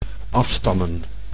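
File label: "afstammen", Dutch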